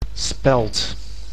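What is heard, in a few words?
"spelt", Dutch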